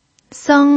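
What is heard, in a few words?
"seng" Mandarin Chinese, first tone, pinyin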